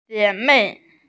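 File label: "jie3mei4", Mandarin Chinese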